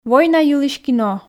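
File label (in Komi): война йылысь кино, Komi, female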